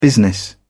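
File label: "business", British English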